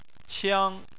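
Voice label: "qiang", Chinese, tone 1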